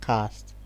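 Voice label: "cast", Nigerian Pidgin, male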